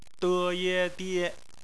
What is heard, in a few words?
"die", Chinese; phonetics; bpmf